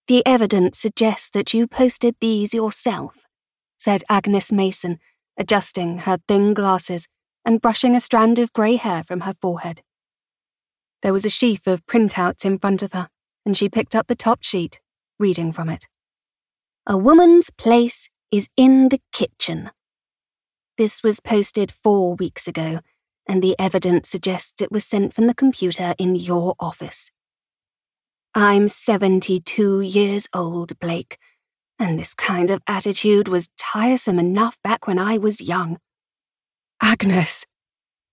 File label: Multi character third person male character pov